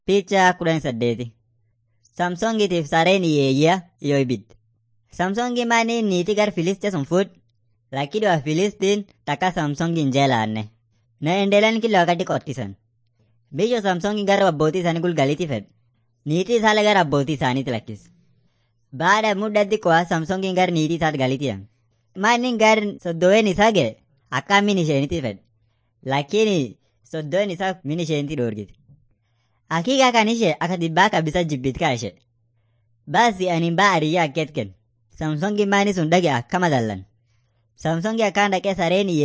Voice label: afan munyoyaya